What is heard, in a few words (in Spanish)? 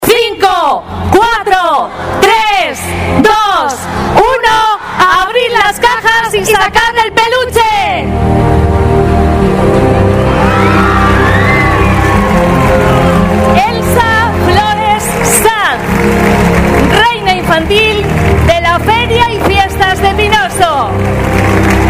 ANUNCIO REINA INFANTIL